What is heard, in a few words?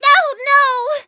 hit, en; cookie run